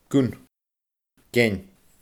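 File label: "gun", Scottish Gaelic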